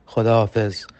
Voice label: "Au revoir" Farsi

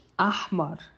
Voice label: Rouge Arabe

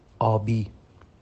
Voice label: "Bleu" Farsi

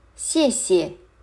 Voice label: "Merci" Mandarin

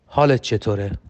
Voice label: "Comment ca va" Farsi

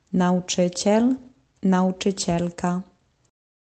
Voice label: Enseignant.e Polonais

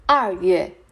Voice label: "Fevrier" Mandarin

